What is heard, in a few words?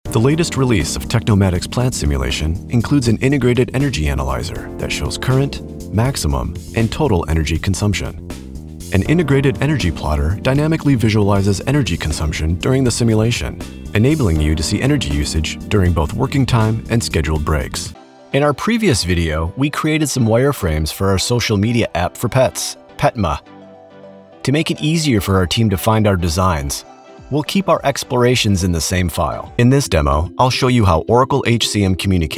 eLearning